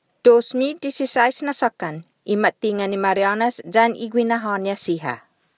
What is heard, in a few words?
chamorro